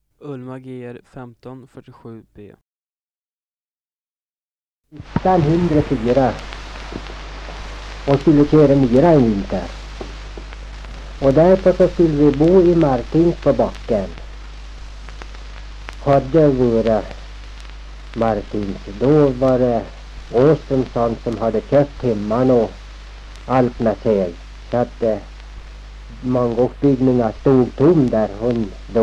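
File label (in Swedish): Ljustorp Medelpad, dialekter; Folkminnen; Svenska sagor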